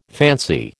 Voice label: "fancy", American